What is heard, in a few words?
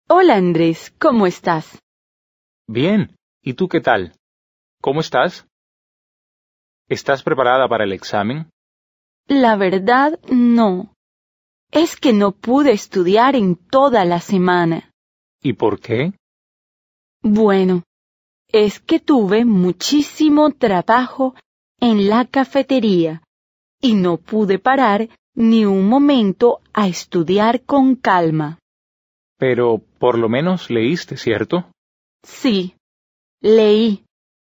Dialogue, Spanish